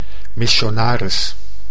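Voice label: "missionaris", Dutch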